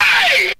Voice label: ay, FILTER; Voice; ooh ahh

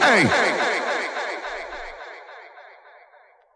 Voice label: "ay", voice

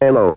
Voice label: "hello" robot; Voice; introductions; hello hi hey

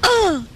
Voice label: "uh" female; ooh ahh; uh um

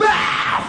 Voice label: "bow", Voice

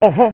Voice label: "uh huh", cartoon; ooh ahh; uh um

